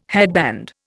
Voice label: "headband", Indian